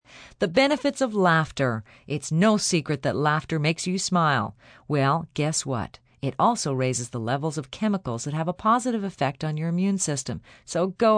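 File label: News Laugh F, Broadcast, news, female news item on light health, benefits of laughter.; female (2d) news item on light health, benefits of laughter. (human voice speaking); VoiceBox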